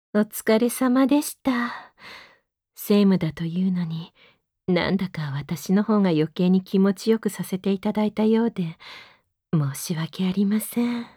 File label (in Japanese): ピロートーク, ASMR; 変態公僕；レジェンド４千華編